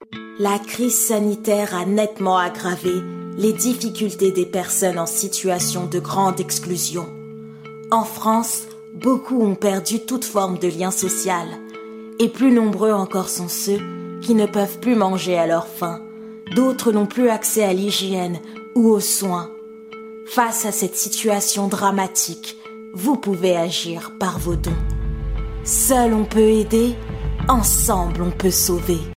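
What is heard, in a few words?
FR-AF Awareness campaign, African French; GoLocalise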